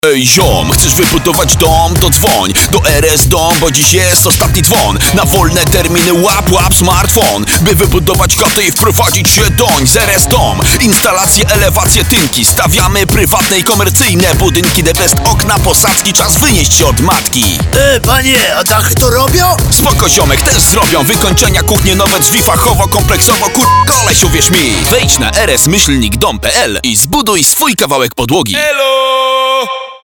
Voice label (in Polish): radio